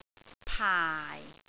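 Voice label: "paai4", Cantonese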